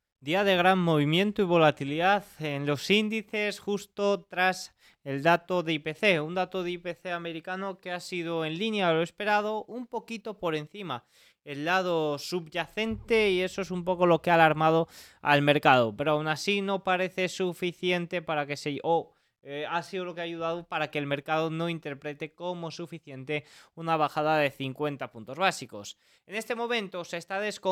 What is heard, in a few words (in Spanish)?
radio; noticias bolsa